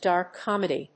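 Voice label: "Dark comedy", English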